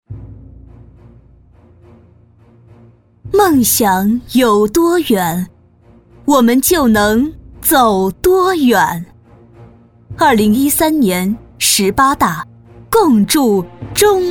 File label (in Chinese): 宣传 大气 稳重